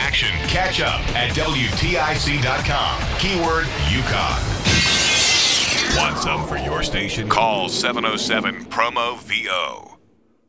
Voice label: News Talk Imaging